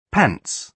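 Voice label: "pants", British English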